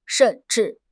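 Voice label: "shen4 zhi4", Mandarin Chinese; HSK4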